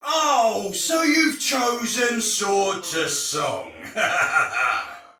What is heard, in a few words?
See Player Shout, Soldier Male; Dialog; Barks; Battle; Sinecure